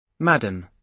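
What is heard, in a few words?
"madam", British English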